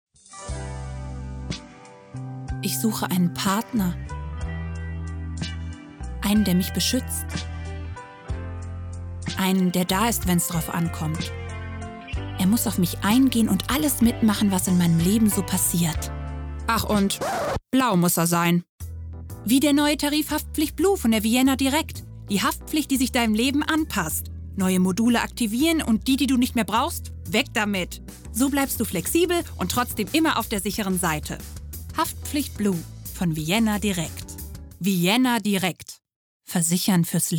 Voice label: Werbung Versicherung

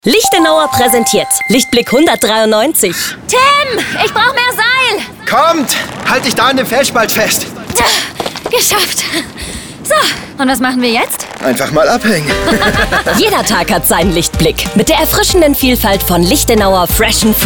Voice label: DEUTSCH MALE Werbung Rollen Mineralquellen Fresh n fruity; Lichtenauer; LOFT VOICES

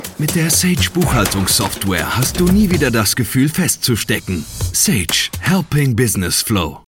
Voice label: Werbung

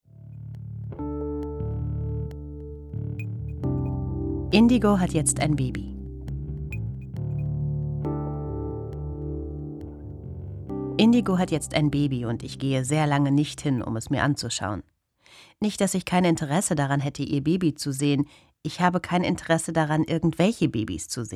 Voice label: Hörbuch; Nicht mein Ding; Indigos Baby

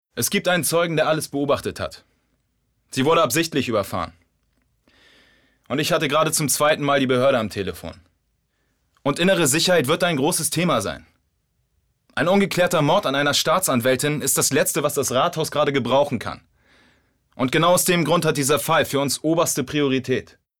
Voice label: Synchro; Revier

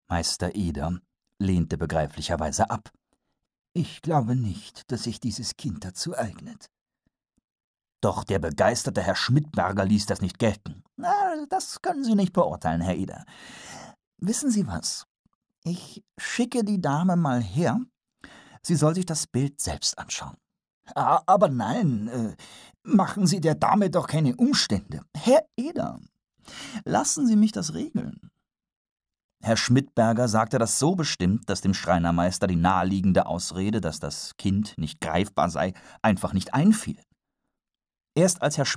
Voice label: hoerbuch sprechprobe; pumuckl

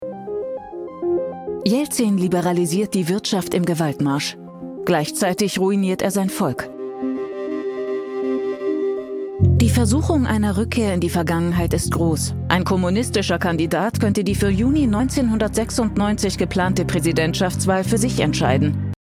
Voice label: Doku; Kreml; LOFT VOICES

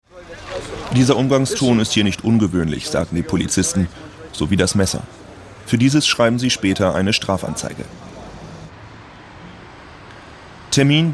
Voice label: Sprecher Reportage

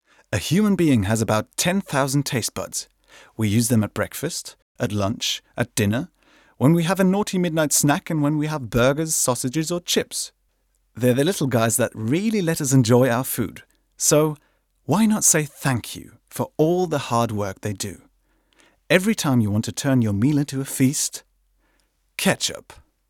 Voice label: ENG Werbung Ketchup; LOFT VOICES

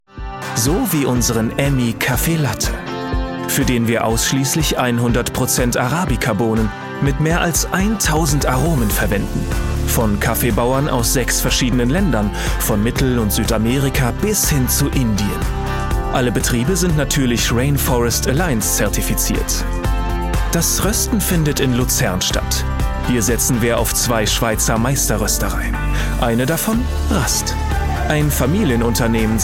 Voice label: imagefilm entspannt voiceovers; emmi

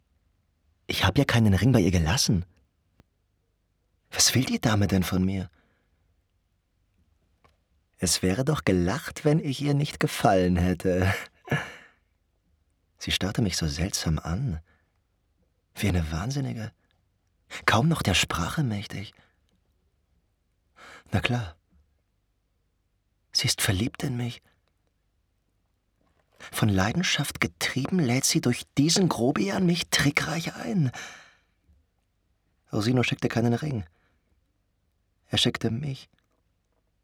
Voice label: Monologe; Viola Was ihr wollt; Lysander Sommernachtrstraum

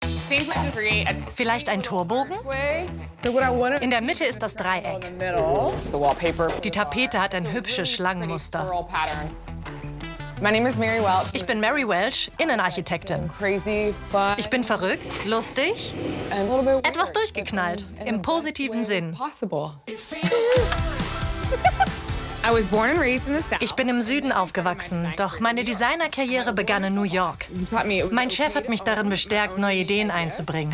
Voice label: Voice Over dynamisch begeistert; LOFT VOICES

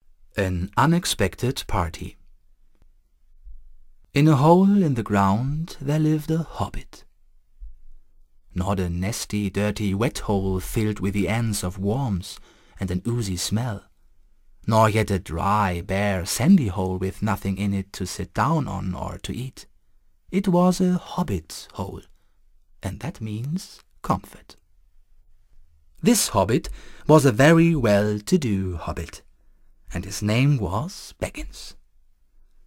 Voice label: englisch; the hobbit

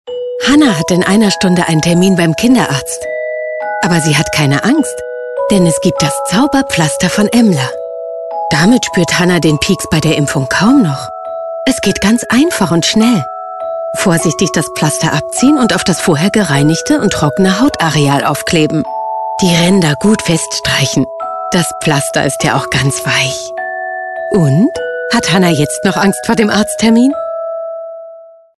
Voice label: Werbung liebevoll

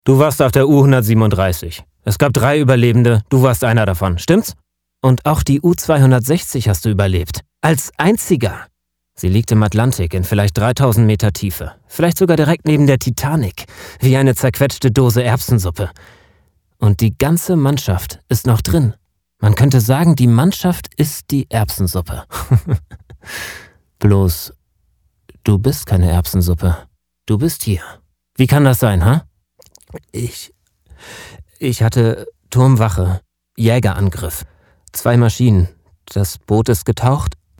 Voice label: Sprecherdemo Rolle

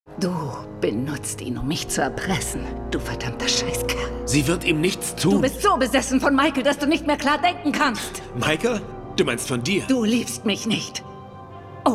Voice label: Synchron; Prison Break

